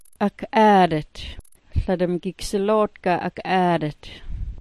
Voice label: "ak'aadit", smalgyax